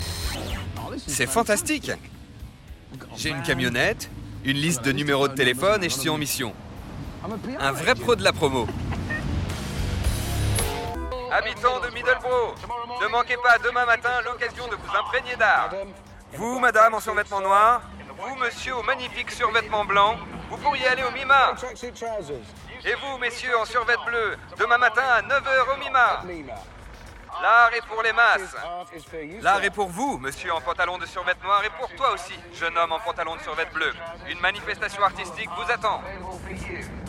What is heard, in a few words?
voiceover; Top Gear; MIMA